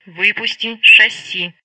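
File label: Speech Russian; Betty; GearDown single; DCS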